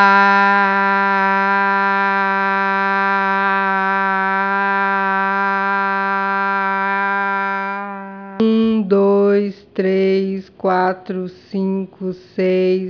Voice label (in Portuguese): Equilibrada, Ressonância; fonoaudiologia